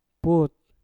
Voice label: "put" hari ini, Mpur

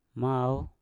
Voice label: "mau" kucing, Mpur

